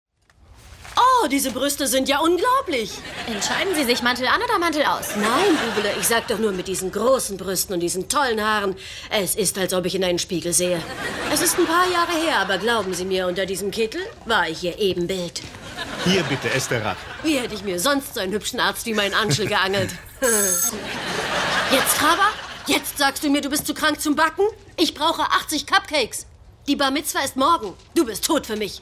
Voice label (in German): comedy; two broke girls